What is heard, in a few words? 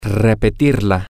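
to repeat it, spanish, male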